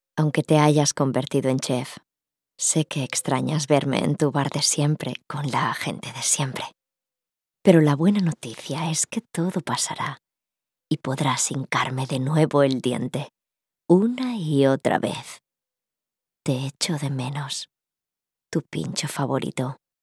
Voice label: Commercial Sensual, spanish voice